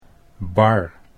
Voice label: "bar", Dutch

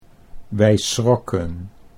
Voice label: "wij schrokken", Dutch

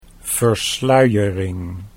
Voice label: "versluiering", Dutch

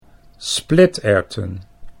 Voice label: "spliterwten", Dutch

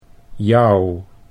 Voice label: "jouw", Dutch

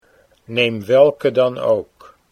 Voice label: neem welke dan, Dutch

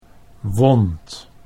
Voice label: "wond", Dutch

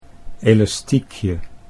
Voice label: "elastiekje", Dutch

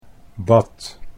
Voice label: "bad", Dutch

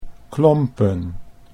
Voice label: "klompen", Dutch

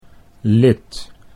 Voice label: "lid", Dutch

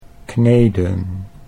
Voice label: "kneden", Dutch; 'to knead' (like, bread)

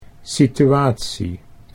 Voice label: "situatie", Dutch

